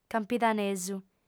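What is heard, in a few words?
"campidanesu", female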